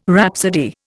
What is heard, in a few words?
"rhapsody", Indian